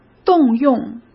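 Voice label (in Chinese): "动用", Mandarin Chinese; chinese-flashcards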